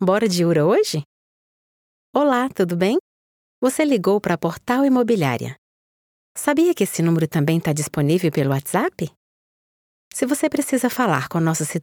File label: Portuguese Brazilian IVR